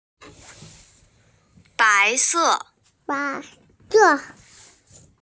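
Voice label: "bai2se4", Mandarin Chinese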